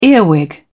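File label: "earwig", British English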